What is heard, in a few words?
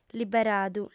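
"liberadu", female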